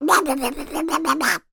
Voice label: cartoon duck voiced by human speaking

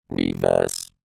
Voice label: science fiction robot says reverse

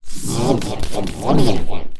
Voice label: Alien Scientist; little robot sound factory

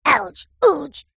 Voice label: cartoon voice high pitched says ouch

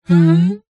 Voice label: computer voice female "hmm"

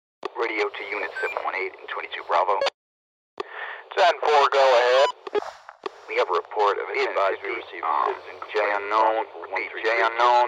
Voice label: Radio Police American; noise creations

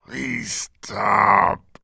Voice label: human voice male in peril says please stop; zapsplat

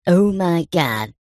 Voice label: cartoon male high pitched voice says oh my god

